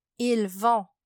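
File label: "Ils vont", French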